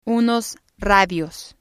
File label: "unos radios", Spanish